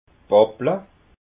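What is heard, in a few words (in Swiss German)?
"pappla", Alsatian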